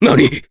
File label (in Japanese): Damage, jp; Nicx; kings raid